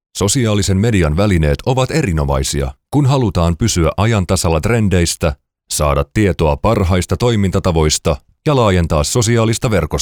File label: finnish narration